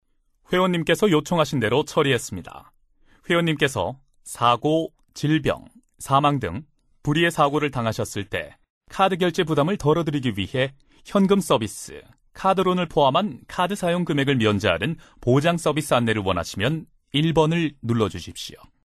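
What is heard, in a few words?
Korean male; ARS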